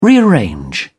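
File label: "rearrange", British English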